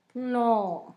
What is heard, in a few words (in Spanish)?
cama, Zapoteco, San Pedro Mártir; INALI